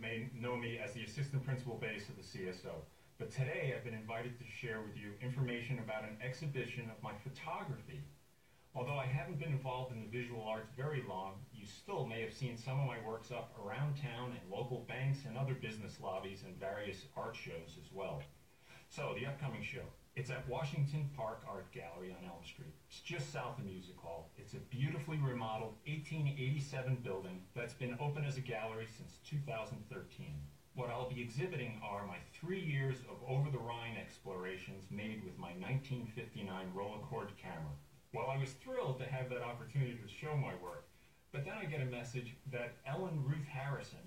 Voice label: spot; WGUC